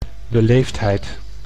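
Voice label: "beleefdheid", Dutch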